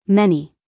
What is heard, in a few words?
"many", US female; everyone-can-use-english